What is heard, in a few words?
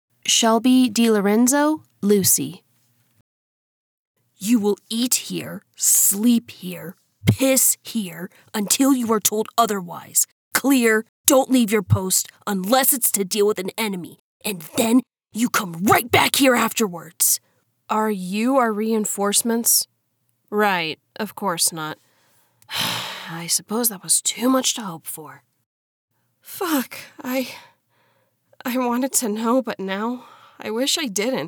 Female